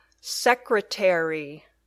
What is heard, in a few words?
"secretary", English